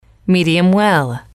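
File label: "medium well", English, female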